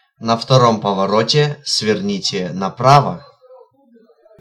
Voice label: 2nd Right, Russian male

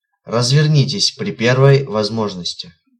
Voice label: Try U Turn, Russian, male

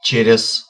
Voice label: After, Russian male

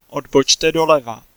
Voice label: Turn Left, Czech male

